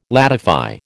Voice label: "ladify", American

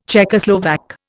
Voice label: "Czechoslovak", Indian

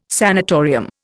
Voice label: "sanitarium", Indian

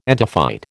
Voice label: "entophyte", American

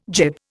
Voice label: "gib", Indian

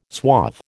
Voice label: "suave", American

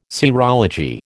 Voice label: "serology", American

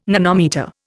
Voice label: "nanometre", Indian

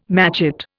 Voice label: "matchet", Indian

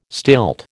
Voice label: "stilt", American

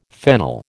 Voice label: "fennel", American

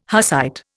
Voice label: "Hussite", Indian

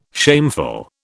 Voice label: "shameful", British

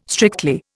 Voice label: "strictly", Indian

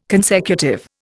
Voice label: "consecutive", Indian

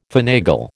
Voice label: "finagle", American

